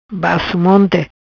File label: "Basso Monte", Macanese